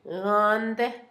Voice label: veinte, Zapoteco, Asunción Ocotlan; Números; INALI

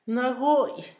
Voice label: cocido, Zapoteco, Asunción Ocotlan; Adjetivos; INALI; Haspelmath

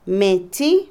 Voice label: muchacho, Otomí, mujer; Parentesco; Vocabulary; INALI

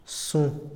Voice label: conservar, Otomí, hombre; Posesión; INALI

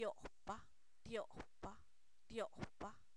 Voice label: dos, Zapoteco, san miguel albarradas; numeros

